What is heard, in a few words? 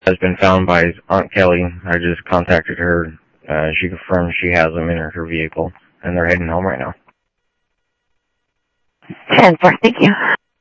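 Sheriff East County, scanner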